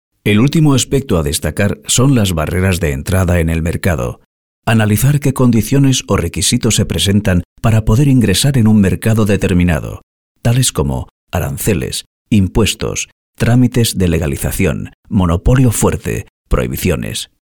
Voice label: locutor spanish voiceover